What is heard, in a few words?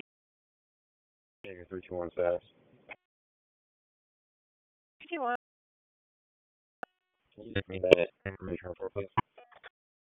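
Raleigh Police Northeast District Dispatch, p25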